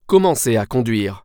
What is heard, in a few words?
Start Drive, french; waze